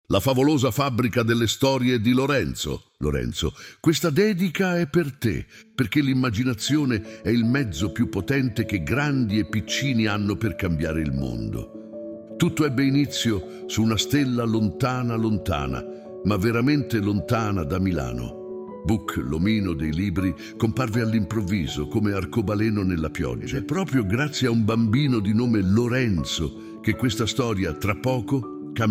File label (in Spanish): audiolibro